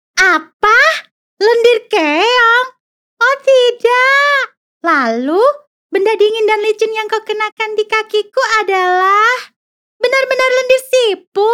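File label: KARAKTER Indonesian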